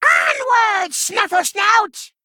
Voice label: Male Kobold